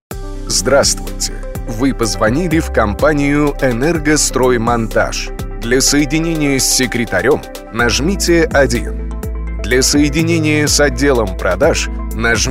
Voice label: Мужской статусный голос; Голосовое приветствие, автоответчик или меню IVR

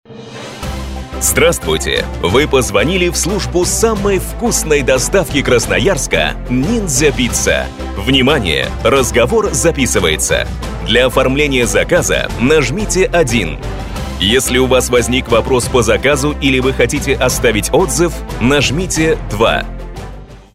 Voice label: Мужской энергичный голос для меню АТС; Голосовое приветствие, автоответчик или меню IVR

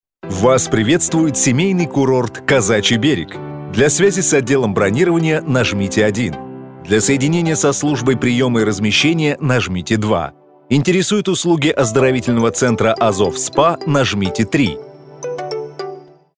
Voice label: Мужской молодежный голос; Голосовое приветствие, автоответчик или меню IVR

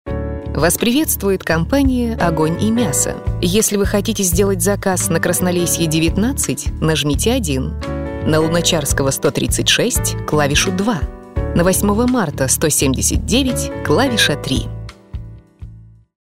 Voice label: Женский мягкий и привлекательный голос; Голосовое приветствие, автоответчик или меню IVR

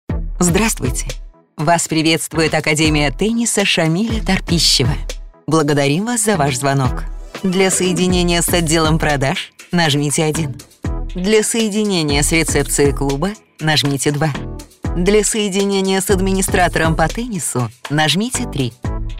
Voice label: Женский деловой голос на цифровое меню; Голосовое приветствие, автоответчик или меню IVR

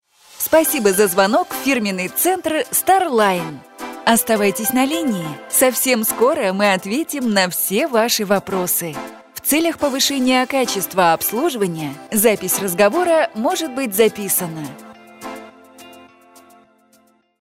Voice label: Женский добрый и позитивный голос; Голосовое приветствие, автоответчик или меню IVR

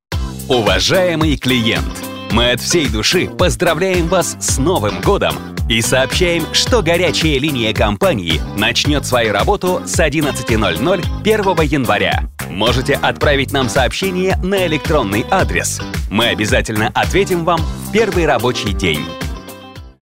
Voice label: Мужской приветливый и позитивный голос; Голосовое приветствие, автоответчик или меню IVR

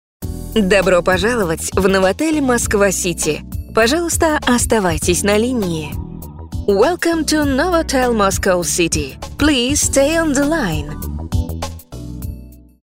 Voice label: Женский обворожительный голос (англ); Голосовое приветствие, автоответчик или меню IVR

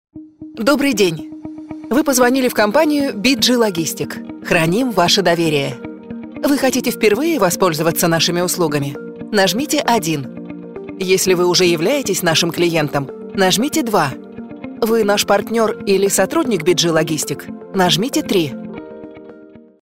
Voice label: Женский официальный, корпоративный голос; Голосовое приветствие, автоответчик или меню IVR

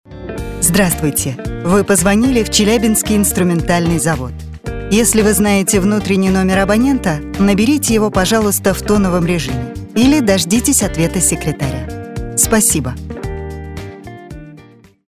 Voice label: Женский спокойный, сдержанный голос; Голосовое приветствие, автоответчик или меню IVR

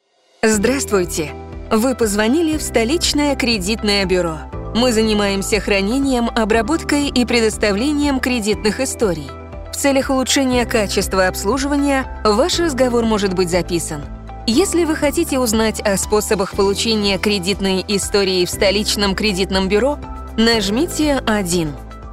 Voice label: Женский обворожительный голос (рус); Голосовое приветствие, автоответчик или меню IVR

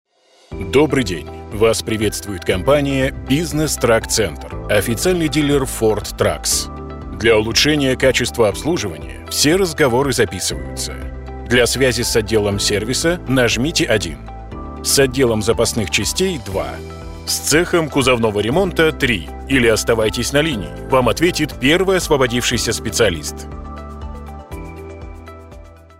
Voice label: Мужской брендовый голос; Голосовое приветствие, автоответчик или меню IVR

